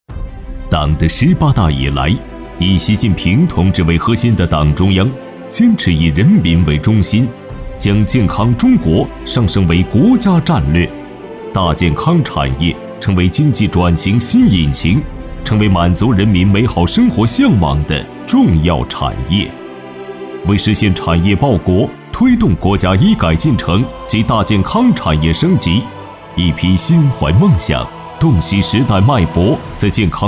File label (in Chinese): 男 企业宣传 时尚恢弘; e健康健康联盟